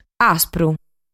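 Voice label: "Aspru", Sardinian word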